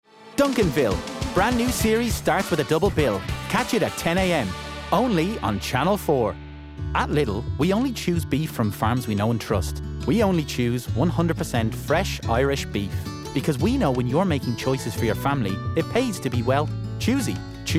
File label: Commercial